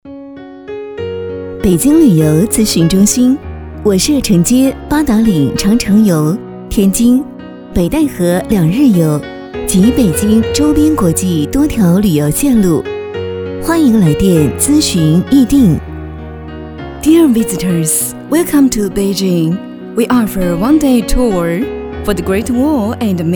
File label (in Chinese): 女 彩铃 中英文 旅行